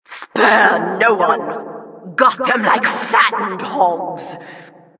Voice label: announcer, vo, killstreak